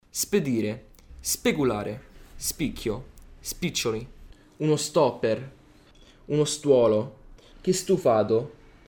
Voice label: sigmatismo; articolazione

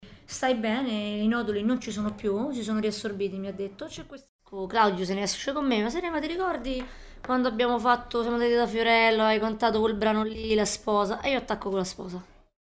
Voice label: disfonia; voce

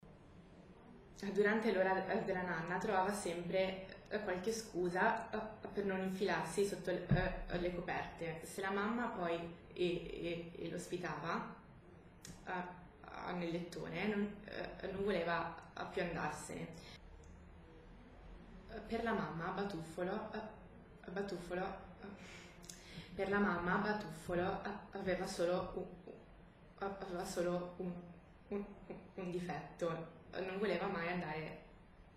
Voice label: balbuzie lettura

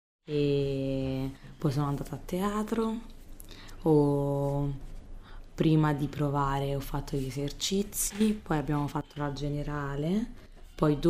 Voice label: disfonia cry; voce

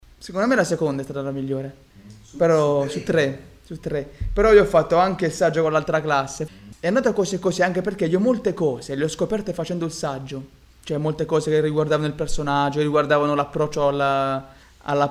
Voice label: rotacismo; articolazione

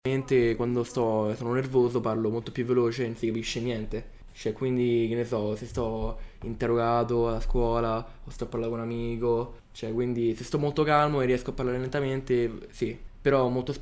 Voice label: sigmatismo; articolazione

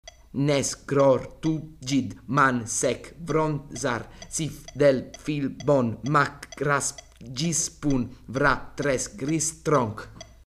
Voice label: difficoltà di articolazione

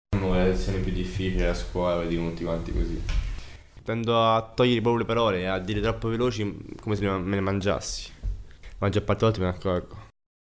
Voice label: difficoltà di articolazione inizio spont

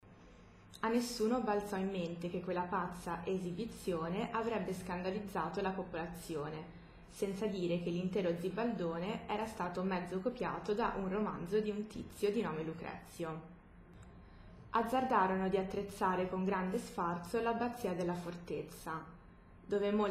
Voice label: balbuzie lettura

